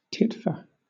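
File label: "titfer", British English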